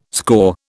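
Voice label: "score", British English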